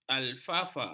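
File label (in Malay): "alfalfa", Malay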